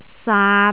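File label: "saat3", Cantonese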